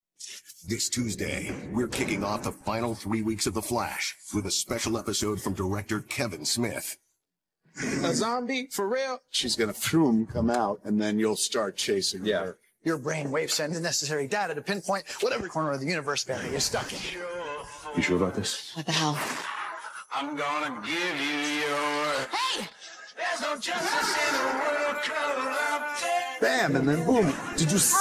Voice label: trailer, vocals; the flash 2x21 trailer "the runaway dinosaur" (hd)